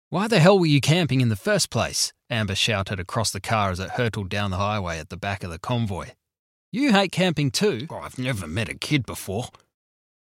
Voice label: Audiobook